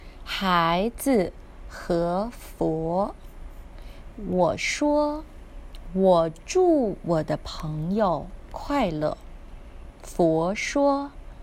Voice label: child and budda voice recording